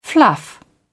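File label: "fluff", British English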